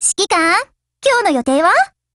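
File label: HELLO Japanese; GF Alarm; SuperShorty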